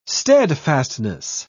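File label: "steadfastness", British English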